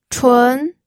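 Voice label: "chun", pinyin, second tone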